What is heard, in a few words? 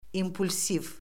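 "impulsiv", Azerbaijani; Orfoqrafiya Lüğəti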